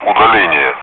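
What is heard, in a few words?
Speech, Russian, air traffic control; DCS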